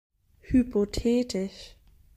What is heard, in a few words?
"Hypotetisch", German pronunciation